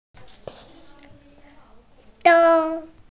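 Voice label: "dong1", Mandarin Chinese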